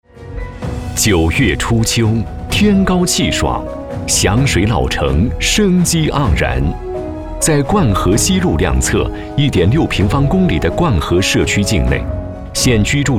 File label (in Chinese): 男, 专题; 灌河社区